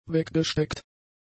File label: "weggesteckt", German; adjectives